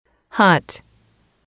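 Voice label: "hot", English, female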